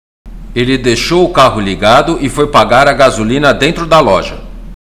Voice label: Portuguese Listening; Portuguese Test